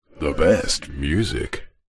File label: radio prod male voice says the best music processed